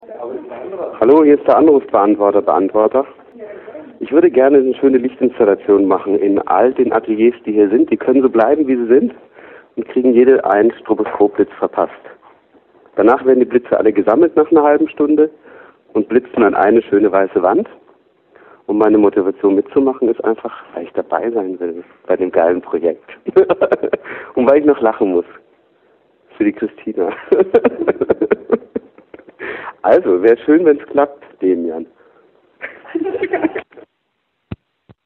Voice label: Voicemail